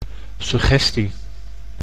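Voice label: "suggestie", Dutch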